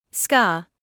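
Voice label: "scar", British English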